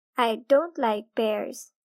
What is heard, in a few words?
pear don't like, girl; grade1; english; what do you like